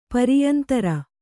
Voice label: "ಪರಿಯಂತರ", Kannada

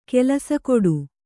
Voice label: "ಕೆಲಸಗೇಡು", Kannada

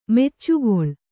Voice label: "ಮುಚ್ಚೆಗೊಳ್", Kannada

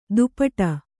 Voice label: "ದುಪಟ", Kannada

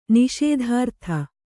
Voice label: "ನಿಷೇಧಾರ್ಥ", Kannada; niṣēdhārtha; noun

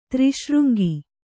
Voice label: "ತ್ರಿಶೃಂಗಿ", Kannada

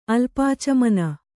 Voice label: "ಅಲ್ಪಾಚಮನ", Kannada

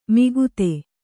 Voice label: "ಮಿಗುತೆ", Kannada

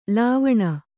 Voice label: "ಲಾವಣ", Kannada

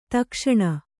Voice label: "ದಕ್ಷಿಣ", Kannada

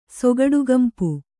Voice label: "ಸೊಗಡುಗಂಪು", Kannada

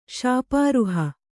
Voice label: "ಶಾಪಾರುಹೆ", Kannada

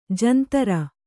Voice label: "ಜಂತರ", Kannada

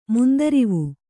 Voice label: "ಮುಂದರಿವು", Kannada

